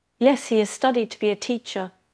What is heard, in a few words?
Voice Acting, es